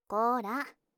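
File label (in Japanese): "こら", Japanese; 目覚ましボイス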